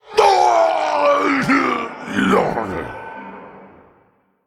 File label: Male Elemental Emote Death